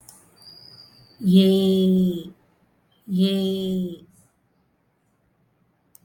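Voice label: ë (the long ë, as in 'étude'), Tamil, vowels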